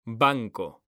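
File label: "banco", Spanish, male; mekanta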